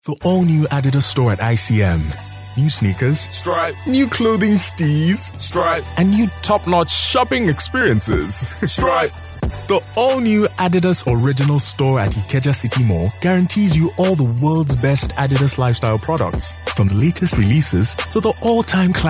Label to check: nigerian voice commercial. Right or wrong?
Right